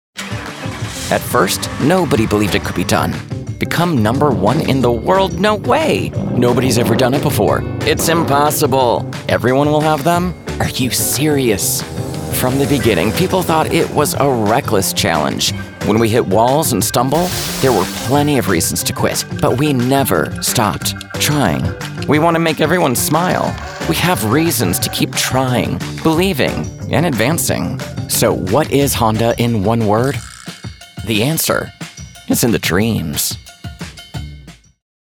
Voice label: Bold Fun Brassy; Voice Over Read Styles